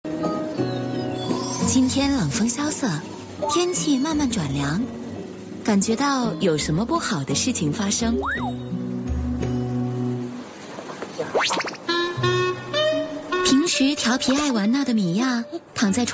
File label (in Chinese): 女 综艺节目; 浙江卫视综艺节目; 神奇伙伴在哪里